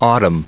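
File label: "autumn", US English, recite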